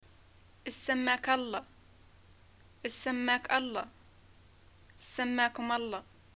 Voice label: what is ur name, Arabic

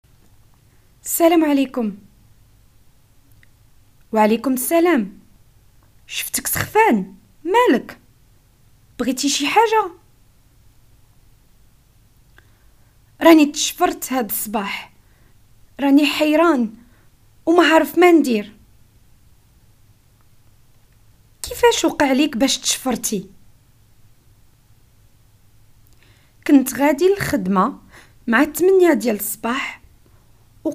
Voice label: Moroccan Arabic dialogue story

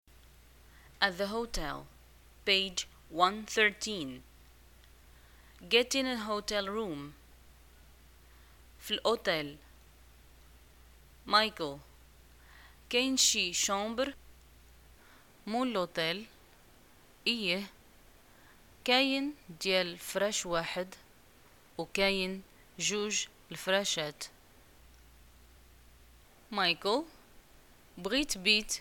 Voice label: dialogue, Arabic, North African, Moroccan

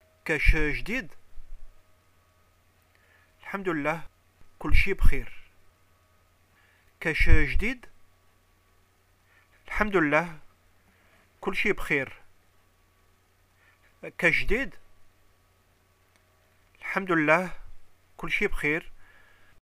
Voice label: greeting how r u, arabic

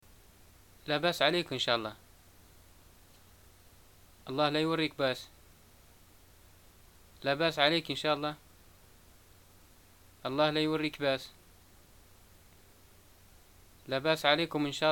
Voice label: greeting get well soon, arabic

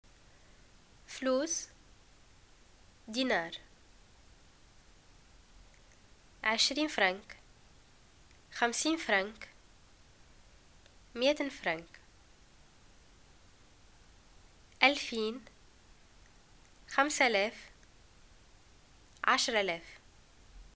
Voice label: shopping money, arabic

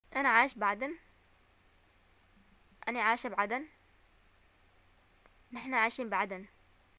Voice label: i live in aden, arabic

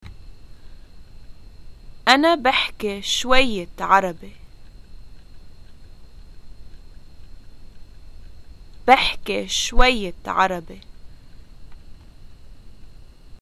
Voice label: introductions i speak a little arabic, arabic, lb

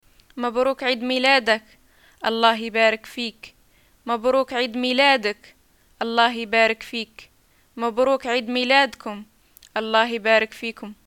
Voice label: "greeting happy birthday", arabic

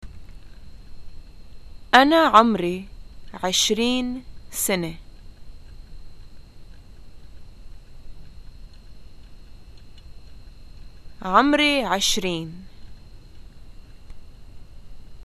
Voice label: introductions i am 20 years old, arabic levantine

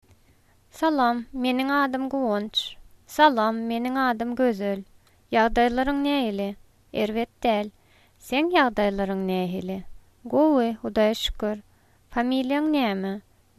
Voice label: greetings, turkmen

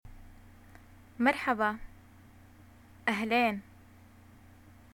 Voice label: greet hello, arabic

